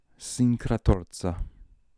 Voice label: "Sincratorza", pronounciations; Fourth Way; Beelzebub

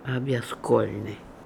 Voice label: "Obligolnian", pronounciations; Fourth Way; Beelzebub pronounciations

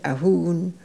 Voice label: "Ahoon", pronounciations; Fourth Way; Beelzebub

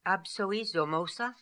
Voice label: "Absoizomosa", pronounciations; Fourth Way; Beelzebub

